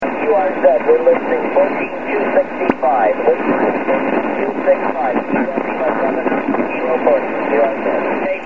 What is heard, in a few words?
14 ssb